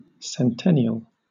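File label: "centennial", British English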